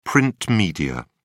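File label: "print media", British English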